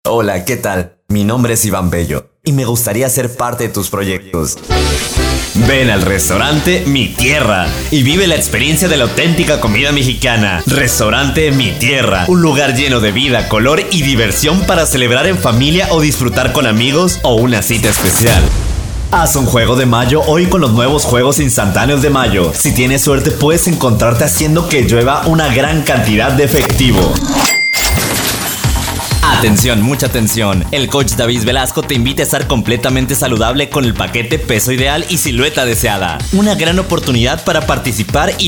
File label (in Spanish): spots, banco voces